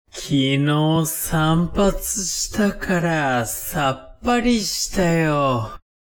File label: man